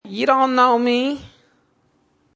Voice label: You Don't Know Me, Man says "you don't know me"; Talking; Voice